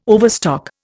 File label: "overstock", Indian